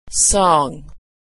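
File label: "song", American English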